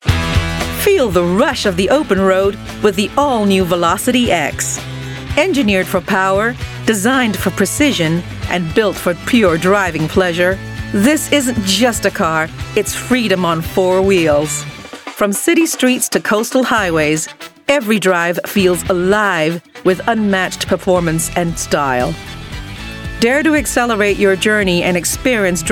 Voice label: US English; Velocity X